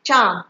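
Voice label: "charm" Nigerian Pidgin, female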